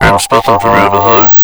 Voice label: robot vocal